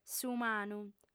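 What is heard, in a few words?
"s'umanu", female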